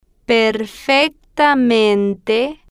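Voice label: "perfectamente", Spanish, slow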